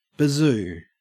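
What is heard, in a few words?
"bazoo", Australian English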